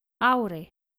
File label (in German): skandinavische maedchennamen auri